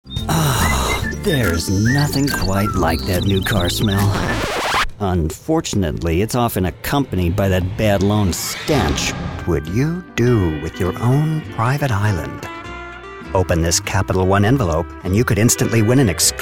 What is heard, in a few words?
Commercial